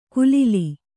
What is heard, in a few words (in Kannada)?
"ಕುಲಿಲಿ", Kannada